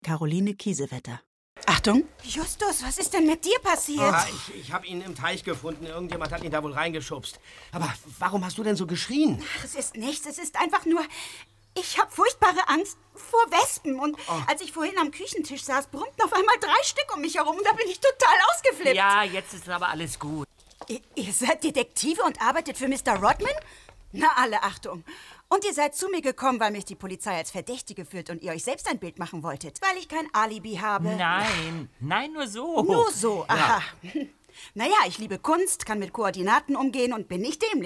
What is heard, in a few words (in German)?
sprecherin